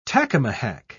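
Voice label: "tacamahac", British English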